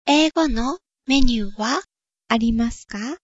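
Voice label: english menu, japanese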